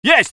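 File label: voice soviet infantry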